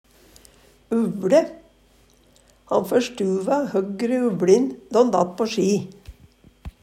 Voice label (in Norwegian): uvLe, Numedalsmål; Han førstuva høgre uvLin då'n datt på isen.; Substantiv hankjønn